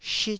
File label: "shit1", taiwanese Syllables